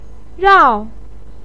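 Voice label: "rao", Chinese pinyin, fourth tone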